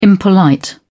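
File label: "impolite", British English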